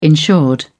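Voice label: "insured", British English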